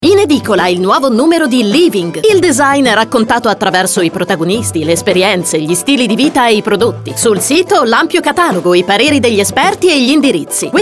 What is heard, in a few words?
Spot living